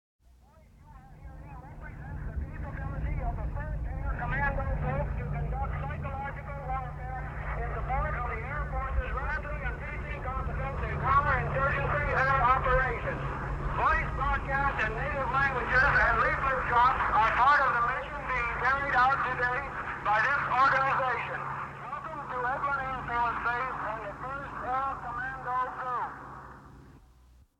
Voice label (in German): Luftwaffe Ansage eines C-47 Transportflugzeugs